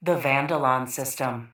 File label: PA System; Vandalon IV